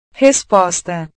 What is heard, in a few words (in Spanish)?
"resposta", Portugués; respuesta